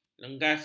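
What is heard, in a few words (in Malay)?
"lengas", Malay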